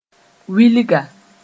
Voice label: "wilga", Mooré